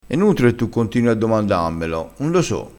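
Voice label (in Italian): "nutile", dialettale crespinese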